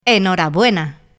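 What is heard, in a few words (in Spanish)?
"enhorabuena", Spanish; mekanta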